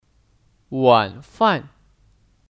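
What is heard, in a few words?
"晚饭", Chinese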